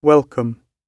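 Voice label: "welcome" British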